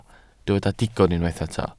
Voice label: "digon", Welsh

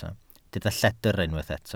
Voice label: "lledr", Welsh

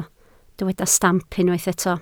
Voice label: "stamp", Welsh

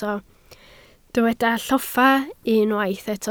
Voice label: "lloffa", Welsh

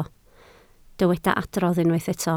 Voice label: "adrodd", Welsh

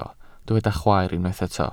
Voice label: "chwaer", Welsh

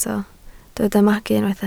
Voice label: "magu", Welsh

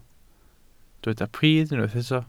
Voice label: "pridd" Welsh

